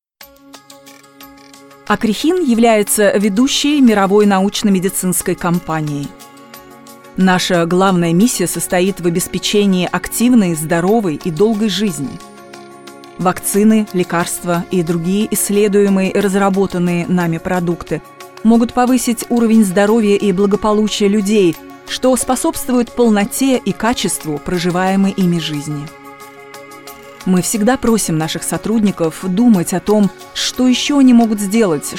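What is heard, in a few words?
Russian female explainer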